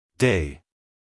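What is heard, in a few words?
"day", American English, male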